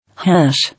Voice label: "Hash", English